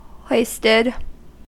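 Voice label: "hoisted", US English; pronunciations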